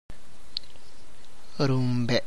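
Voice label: Tlacochahuaya Zapotec; knows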